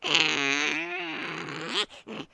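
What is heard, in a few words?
goblin happy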